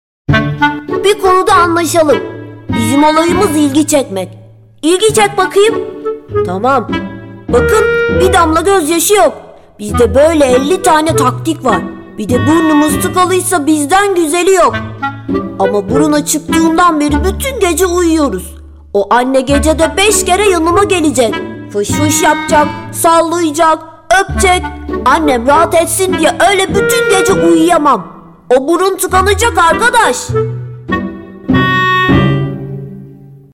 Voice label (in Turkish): reklam; bruno